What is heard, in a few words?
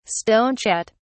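"stonechat", English, British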